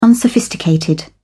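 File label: "unsophisticated", British English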